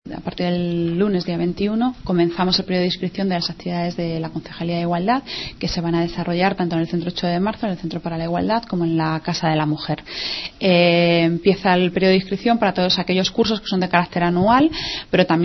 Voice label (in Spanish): noticias